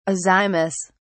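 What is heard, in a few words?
"azymous", British English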